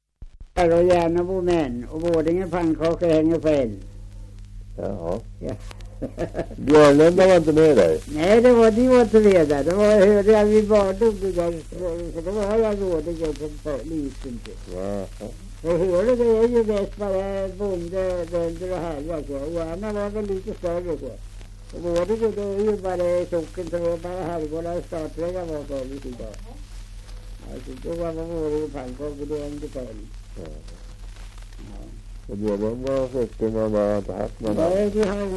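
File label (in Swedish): Björnlunda Södermanland, svenska sagor dialekter; Folkminnen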